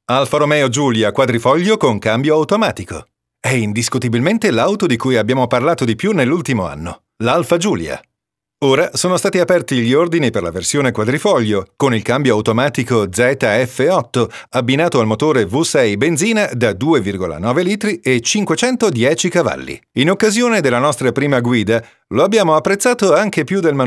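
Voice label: Italian, male, commercial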